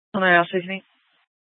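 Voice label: Police Department North Dispatch, scanner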